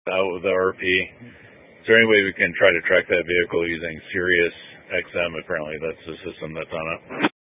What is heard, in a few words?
Sheriff Dispatch; Mesa County